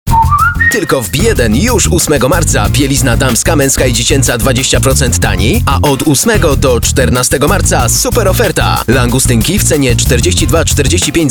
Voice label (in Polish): reklama